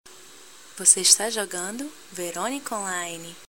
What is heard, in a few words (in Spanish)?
nota de voz